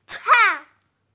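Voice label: "chang" Chinese, female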